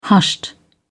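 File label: "hushed", British English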